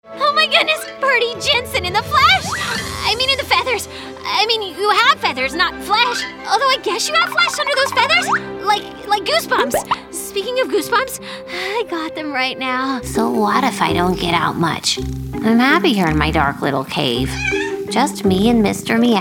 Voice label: Female Voiceover, animation